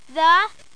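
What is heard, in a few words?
"the", English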